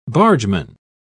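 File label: "bargeman", British English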